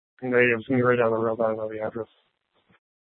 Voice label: Sheriff, scanner; Maricopa County (P25) - Thompson Peak; Shrf Mnt (Parks)

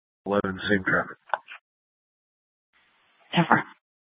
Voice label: Dispatch; Scottsdale

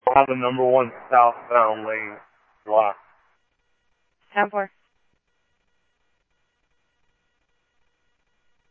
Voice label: Scottsdale Police, scanner audio

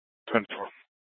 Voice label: Police South Dispatch; Scottsdale Police

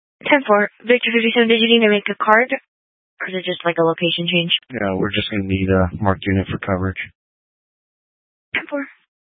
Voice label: Police Dispatch

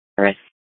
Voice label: Fire Dispatch; C- Chandler

